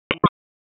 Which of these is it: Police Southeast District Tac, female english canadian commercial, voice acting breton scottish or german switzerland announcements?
Police Southeast District Tac